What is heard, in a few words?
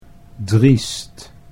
"driest", Dutch